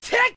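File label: "yes", English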